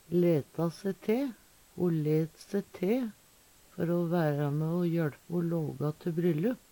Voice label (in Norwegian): "leta se te", Numedalsmål; Uttrykk; Veggli